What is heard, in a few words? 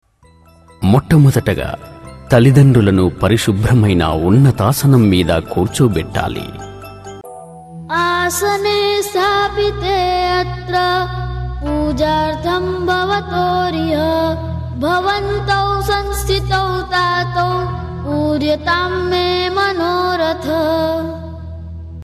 Aasan Shlok Telugu; मातृ पितृ पूजन दिवस; Poojan Vidhi Tracks Telugu